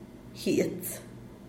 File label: "giets", papel; Díaz Ordaz